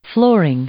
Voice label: "flooring", English; noun